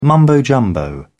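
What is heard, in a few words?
"mumbo jumbo", British English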